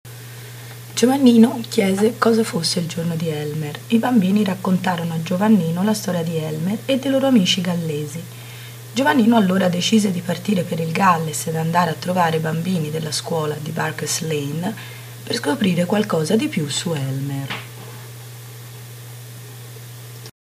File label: italian version, Italian teacher's voice